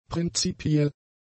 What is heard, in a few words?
"prinzipiell", German; adjectives